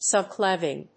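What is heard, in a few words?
"subclavian", English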